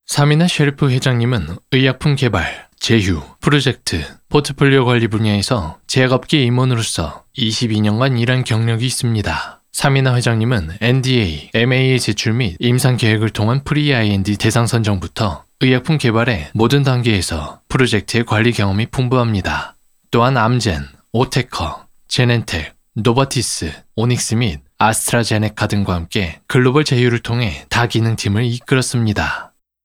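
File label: Korean, male, e-learning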